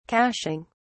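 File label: "cashing", British English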